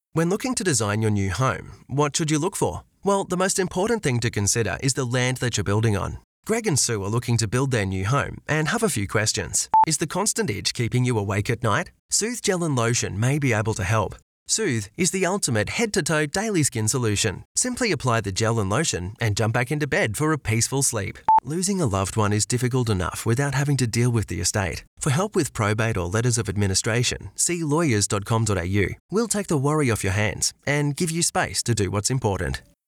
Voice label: Natural